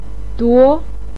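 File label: "duo2", Mandarin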